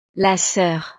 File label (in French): "la soeur", frances; familia